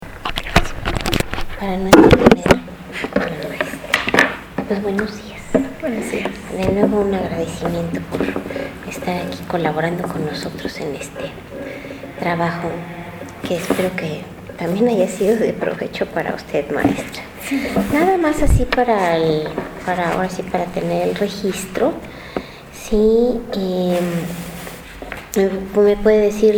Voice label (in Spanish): entrevista espanyol